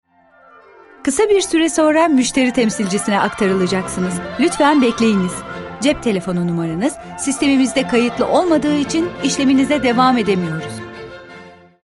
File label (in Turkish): Telefon IVR; enerjik tempolu